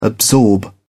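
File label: "absorb", British English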